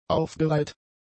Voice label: "aufgereiht", German; adjectives

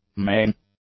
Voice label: "main", English; adjectives

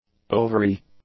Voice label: "ovary", English; nouns

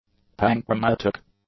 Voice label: "panchromatic", English; adjectives

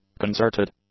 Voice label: "concerted", English; adjectives

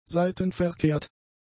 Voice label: "seitenverkehrt", German; adjectives

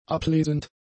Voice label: "ablesend", German; adjectives

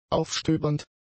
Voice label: "aufstöbernd", German; adjectives

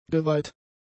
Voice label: "geweiht", German; adjectives

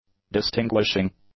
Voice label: "distinguishing", English; adjectives

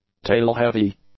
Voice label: "tail-heavy", English; adjectives

